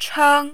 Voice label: "cheng1", mandarin

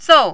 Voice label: "zao4", mandarin

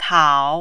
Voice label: "tao3", mandarin

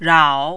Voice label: "rao3", mandarin

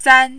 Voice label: "zan1", mandarin

